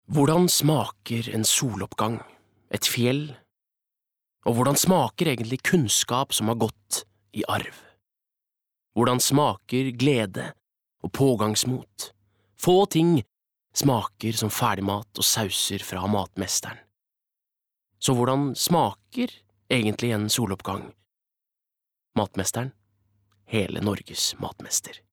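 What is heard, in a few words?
Norwegian advert, norwegian male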